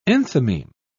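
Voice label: "enthymeme", English, British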